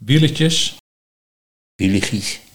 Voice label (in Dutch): Wiellegies, Nieuw lekkerlands dialect; wieletjes; trulegies